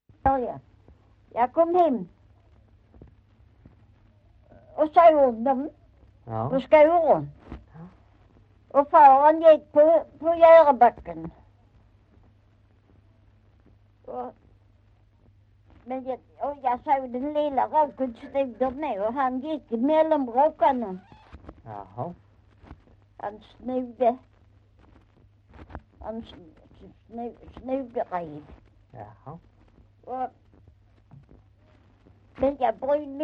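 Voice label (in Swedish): Maglarp Skåne, Svenska sagor dialekter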